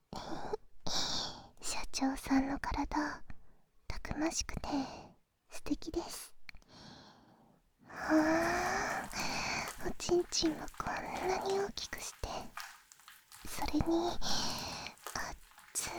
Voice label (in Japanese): ベッドでこっそり囁き, バイノーラルマイク 効果音あり; ベッドでこっそり囁きハメ録り…ゴムエッチ